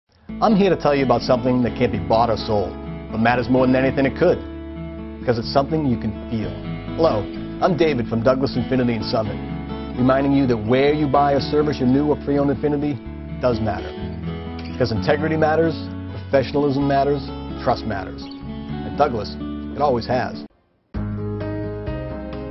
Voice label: Voiceover